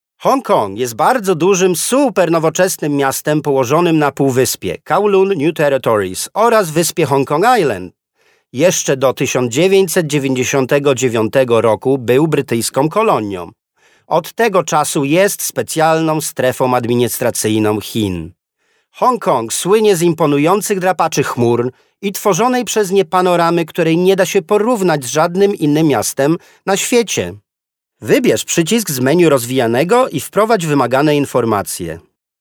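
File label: Polish male corporate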